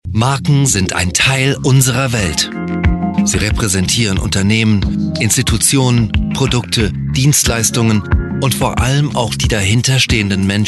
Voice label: german corporate

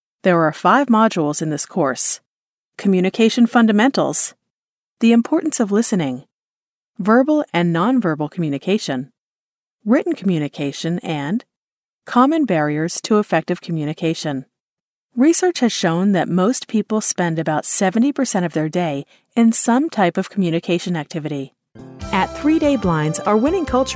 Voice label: elearning

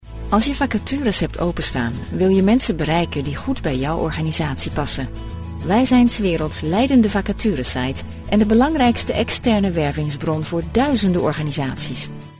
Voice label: Explainer Dutch

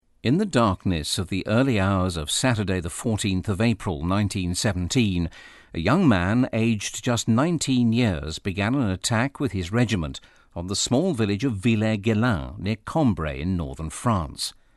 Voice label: audiobook

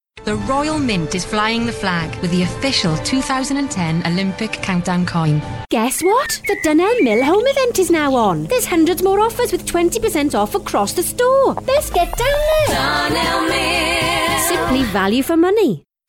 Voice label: welsh accent

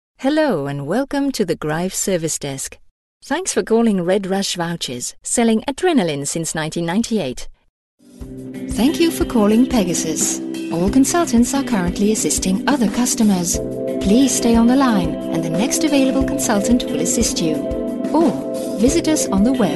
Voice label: Telephone English

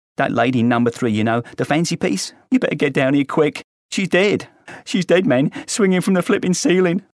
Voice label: cockney

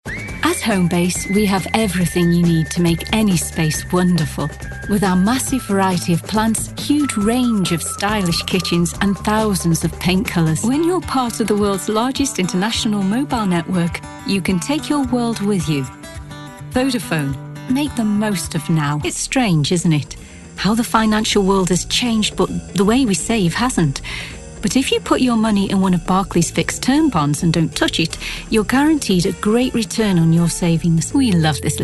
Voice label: commercial

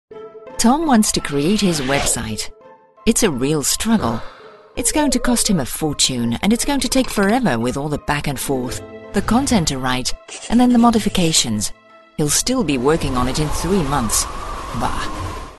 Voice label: Explainer English

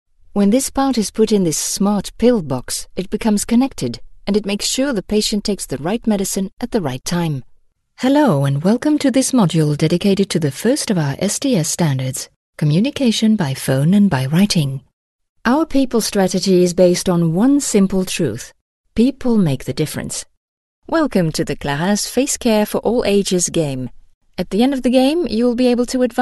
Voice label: E-learning English

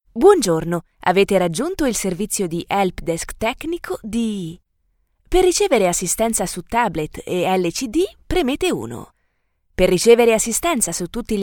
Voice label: telephone

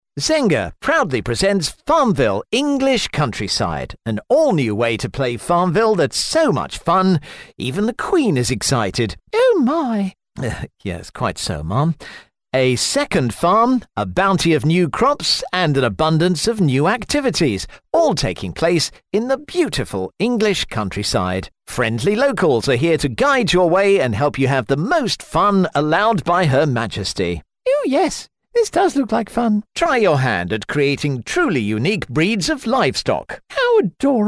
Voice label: posh

